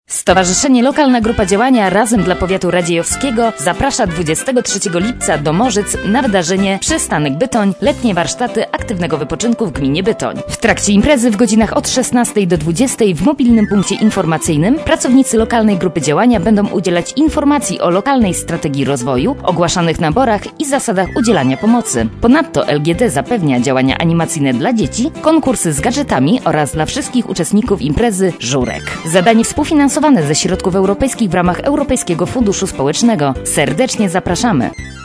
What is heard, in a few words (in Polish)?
spot reklamowy